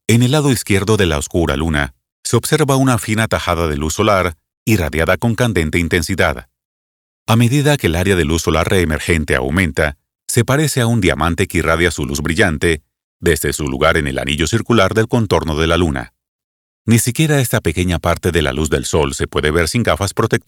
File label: full Diamond Ring Spanish; eclipse; Eclipse Soundscapes; características del eclipse